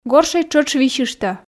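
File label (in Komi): "горшӧй тшӧтш висьыштӧ", Komi, female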